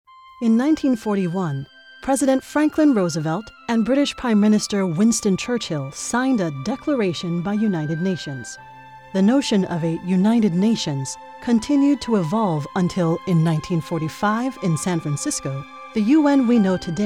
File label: Narration, feminine voices